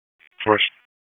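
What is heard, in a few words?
Police Northeast District Disp, radio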